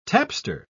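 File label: "tapster", British English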